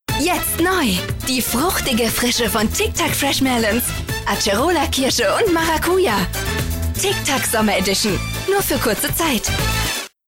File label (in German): energetisch; TIC TAC